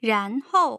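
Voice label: "ranhou", Mandarin Chinese, tone pairs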